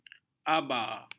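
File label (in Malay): "aba", Malay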